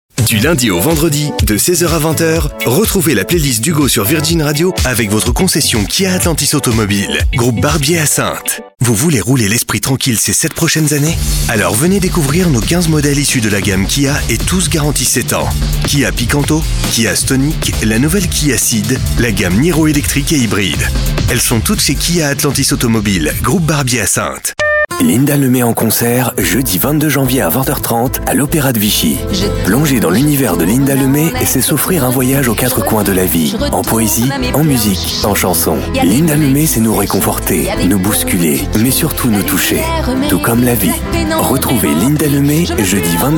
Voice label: french voice over male commercial